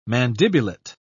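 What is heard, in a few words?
"mandibulate", British English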